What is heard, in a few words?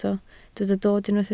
"dod", Welsh